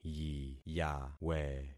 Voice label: "yiyawe", concat syllables; Affixing experiment